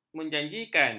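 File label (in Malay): "menjanjikan", Malay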